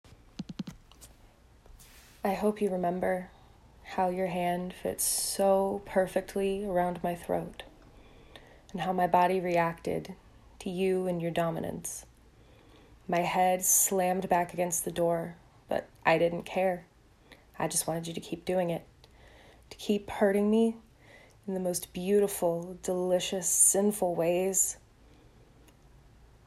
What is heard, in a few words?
Poetry Reading